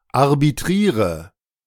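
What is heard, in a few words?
"arbitriere", German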